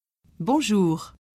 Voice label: french hello